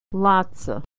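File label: "lotsa", American English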